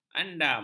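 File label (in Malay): "andam", Malay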